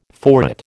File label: "forehead", American